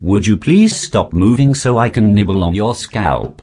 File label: posh headcrab hello; garrysmod